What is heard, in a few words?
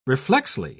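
"reflexly", English, uk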